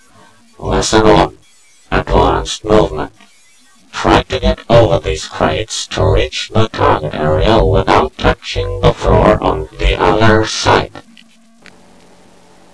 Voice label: holo less, tutorial; blackops